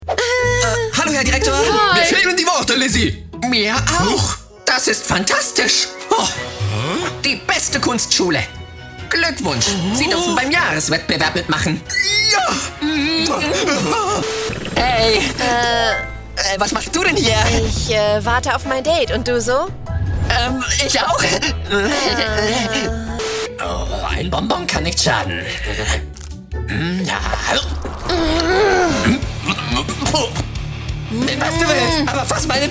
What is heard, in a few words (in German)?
Verschiedene Stimmen, Audio Drama (Hörspiel), German; La La Lebensfreude